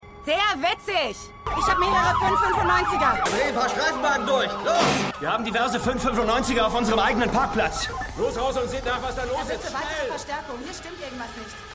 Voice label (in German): Polizistin Polizist, Stimmproben; The Flash